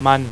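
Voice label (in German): "mann" German; ipa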